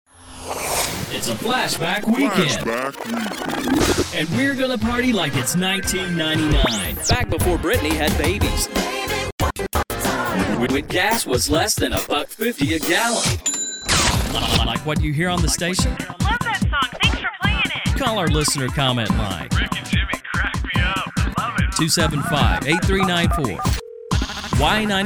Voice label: Imaging; Imaging Demo